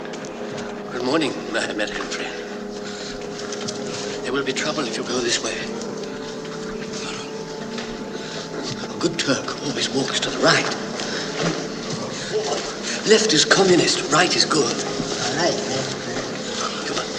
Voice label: Dialogue VO